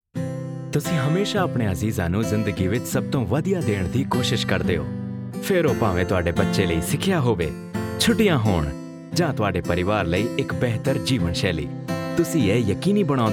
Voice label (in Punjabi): Punjabi E-Learning